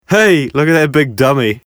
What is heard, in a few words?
Trash Can, BOY